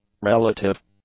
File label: "relative", English; adjectives